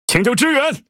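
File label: Speech Quick Commands Need Support, Chinese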